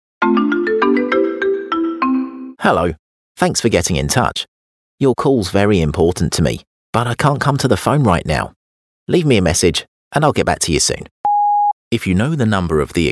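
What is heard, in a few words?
telephone, Kent Accented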